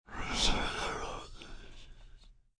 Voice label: Whisper Deep, Whispering, processed with effects, breathy, scary (human voice); Sound Effects:Voice; VoiceBox